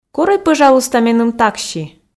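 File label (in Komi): корӧй пӧжалуйста меным такси, Komi, female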